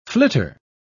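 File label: "flitter", British English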